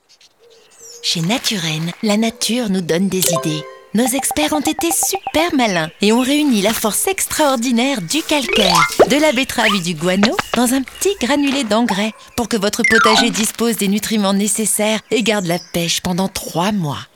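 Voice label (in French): narration posee clin d'oeil, voix-off féminine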